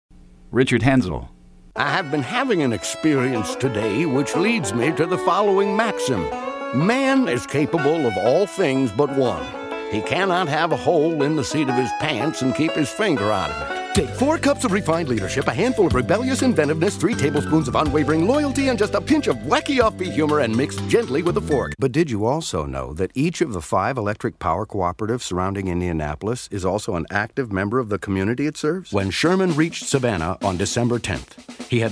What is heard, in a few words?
narration